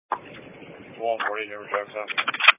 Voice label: Police Patrol 1 (Dispatch)

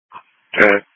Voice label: Police Patrol, Dispatch

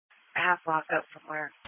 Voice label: EMS Dispatch

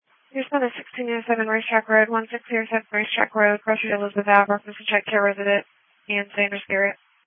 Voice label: Police Patrol 1 (Dispatch)

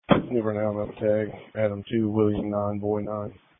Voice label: Police Patrol 1 (Dispatch)

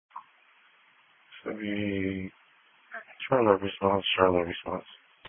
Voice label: EMS Dispatch

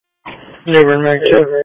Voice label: EMS Dispatch

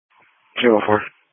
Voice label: Police Patrol, Dispatch

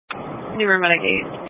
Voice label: EMS Dispatch

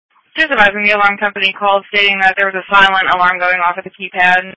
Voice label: Fire Tactical 01

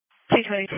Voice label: Police Patrol 1 (Dispatch)

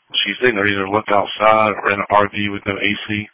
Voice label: Police Patrol 1 (Dispatch)

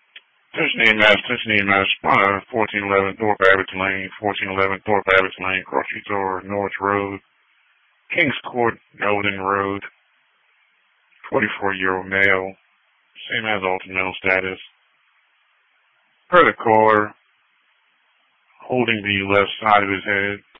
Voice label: EMS Dispatch

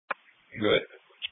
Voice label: Police Patrol 1 (Dispatch)

